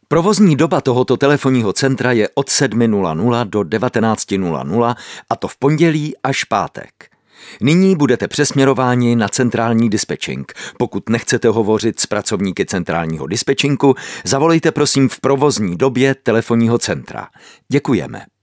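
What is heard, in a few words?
male Czech IVR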